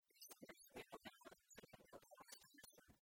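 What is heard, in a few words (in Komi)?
"асывнас ме муна велӧдчыны кӧкъямыс часӧ", Komi, female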